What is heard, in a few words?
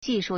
"ji4 shu4", Chinese